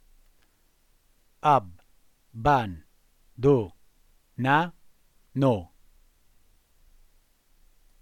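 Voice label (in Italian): "abbandonano" Italian word, voce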